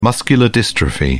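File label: "muscular dystrophy", British English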